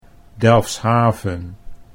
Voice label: "Delfshaven", Dutch